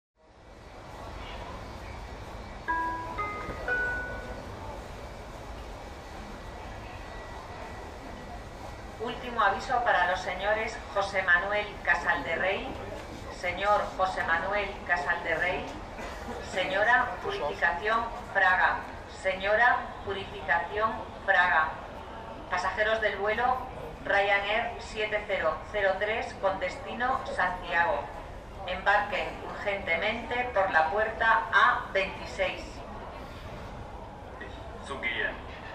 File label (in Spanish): ultimo avisto Senoras Senor, Flughafen-Ansagen